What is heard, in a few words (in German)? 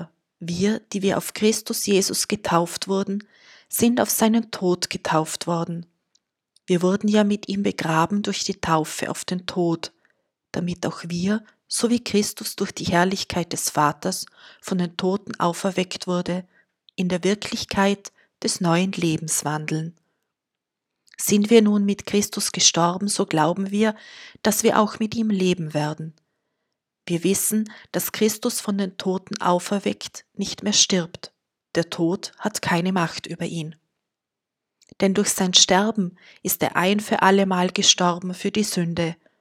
Lesung